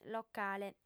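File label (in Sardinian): "locale", Sardinian, female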